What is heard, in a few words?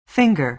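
"finger" American English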